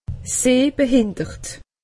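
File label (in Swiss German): "sehbehindert", Alsatian; medecine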